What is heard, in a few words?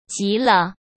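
"…极了", Mandarin Chinese; chinese-flashcards; hsk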